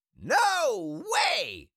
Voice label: Cartoon little monster voice; no way